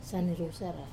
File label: "sane rusara", reminisce, wamesa